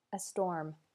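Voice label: "a storm", English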